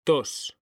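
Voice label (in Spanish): "TOS", Spanish, male; mekanta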